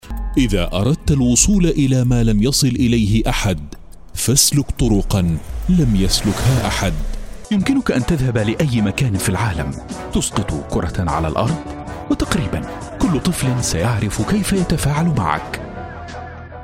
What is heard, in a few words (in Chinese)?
阿拉伯语男-沉稳嗓音